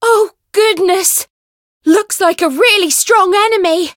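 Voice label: Voice encounter fear; shayne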